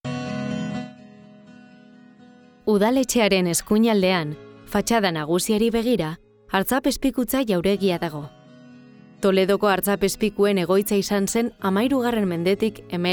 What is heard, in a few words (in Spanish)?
Narrativo Euskera